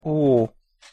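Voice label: "o hosszu", Hungarian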